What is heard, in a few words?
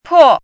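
"pò", Chinese; 迫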